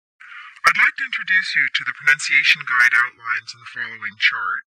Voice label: Announcement on plane